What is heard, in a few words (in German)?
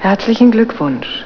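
"herzlich", German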